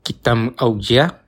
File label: i miss you, bonggi